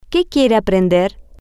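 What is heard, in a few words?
what does he want to learn, Spanish, female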